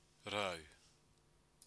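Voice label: "rau", Māori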